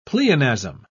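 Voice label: "pleonasm", British English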